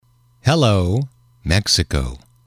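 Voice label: "hello Mexico", English, male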